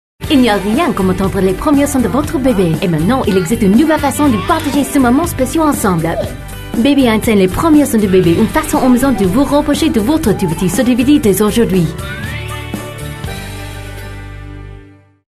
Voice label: baby einstein french